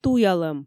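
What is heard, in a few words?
"туялӧм", Komi, female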